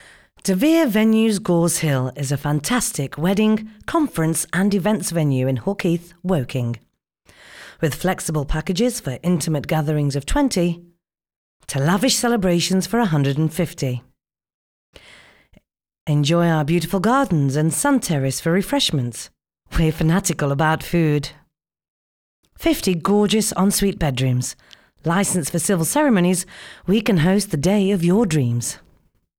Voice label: Voiceover; De Vere Venues